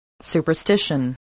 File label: "superstition", English